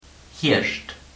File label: autumn, luxembourgish